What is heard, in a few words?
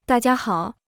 Mandarin Greetings everyone